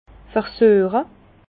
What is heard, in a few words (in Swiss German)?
"versueche", Bas Rhin; vin; goûter